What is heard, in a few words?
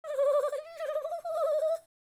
scared